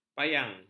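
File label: "payang", Malay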